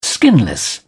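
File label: "skinless", British English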